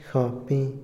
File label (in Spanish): "bendecir", Otomí, hombre; Religión; INALI